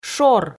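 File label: "шор", Komi, female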